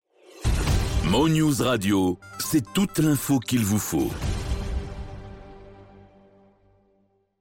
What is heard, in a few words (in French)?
Sweep C'est toute l'info qu'il vous faut; Mo News